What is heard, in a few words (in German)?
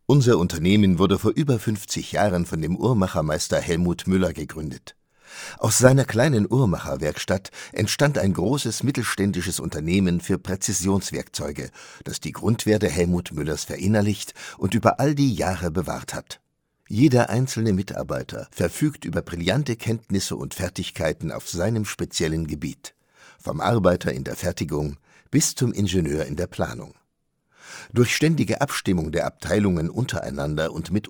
Imagefilm